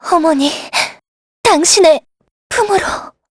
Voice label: Vox Dead kr; heroes; kingsraid